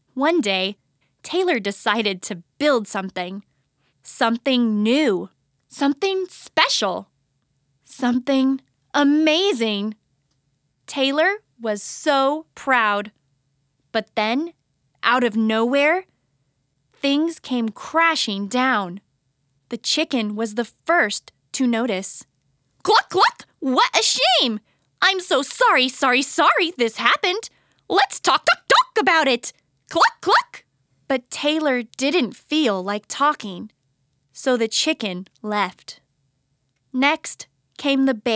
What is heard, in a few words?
Children's Audiobook Narration